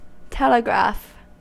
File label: "telegraph", US